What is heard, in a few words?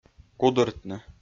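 "гудыртны", Komi-Zyrian, male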